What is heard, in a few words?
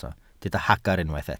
"hagr", Welsh